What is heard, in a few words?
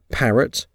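"parrot", English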